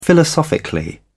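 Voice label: "philosophically", British English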